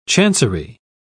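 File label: "chancery", British English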